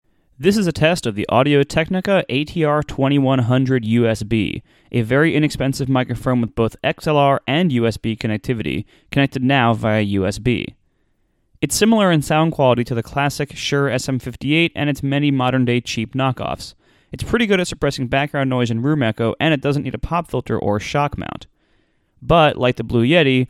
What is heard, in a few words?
ATR 2100 USB close distance, microphones